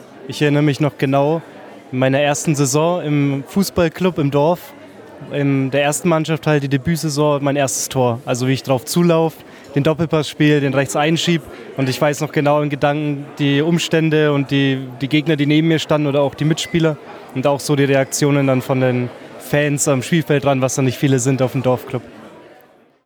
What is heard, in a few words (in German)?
stimme m